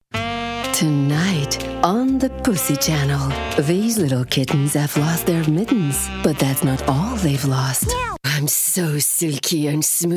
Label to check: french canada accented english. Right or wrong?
Right